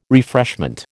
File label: "refreshment", American